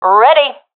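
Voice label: reporting in, professional female; vo; Counter Strike Model Pack; CS:GO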